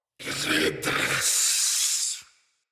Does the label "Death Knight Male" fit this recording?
Yes